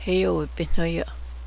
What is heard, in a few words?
"cornmeal", cheyenne; heóvepénôhéó'o